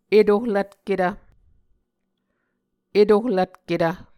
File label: "etohlvtketv", muscogee